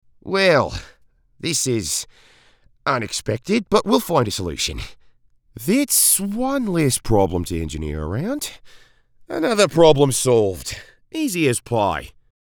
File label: Australian Accent